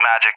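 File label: "Magic", English, USA; CallSign; DCS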